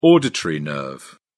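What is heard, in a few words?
"auditory nerve", British English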